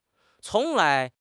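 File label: "cong2 lai2", Mandarin Chinese; HSK4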